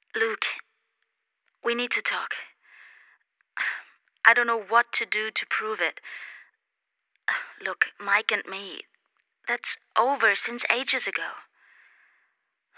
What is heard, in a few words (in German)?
ENGLISCHes Sprachbeispiel; verwendet für Kurzfilm